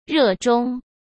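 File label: "热衷", Mandarin Chinese; chinese flashcards; hsk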